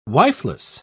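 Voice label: "wifeless", British English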